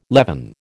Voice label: "levin", American